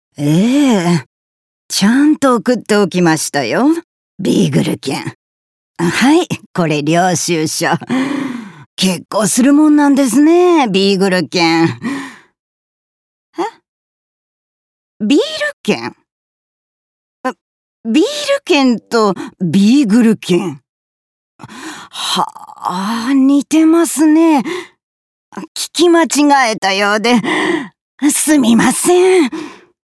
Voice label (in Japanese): 聞き間違い60代